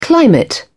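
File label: "climate", British English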